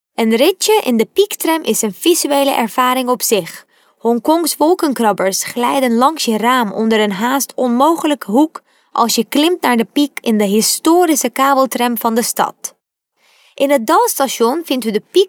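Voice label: NL female corporate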